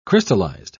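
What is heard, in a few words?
"crystallised", British English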